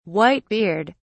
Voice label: "white beard", uk